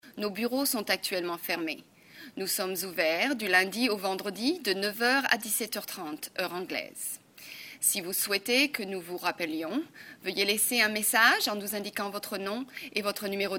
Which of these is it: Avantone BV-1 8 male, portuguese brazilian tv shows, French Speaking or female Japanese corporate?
French Speaking